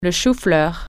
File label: cauliflower, french